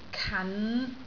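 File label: "kan5", Cantonese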